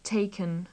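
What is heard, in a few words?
"taken", British English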